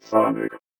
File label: VOICEFX ELCTR 140; Techno2